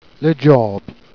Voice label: "dgiablye", Jèrriais

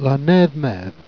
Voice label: "nethe methe", Jèrriais

